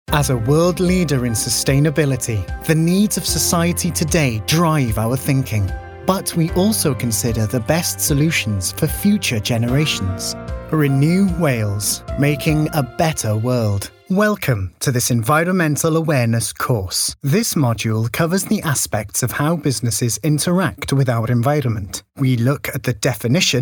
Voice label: male multiple accents commercial compilation, welsh; english and welsh; devine voices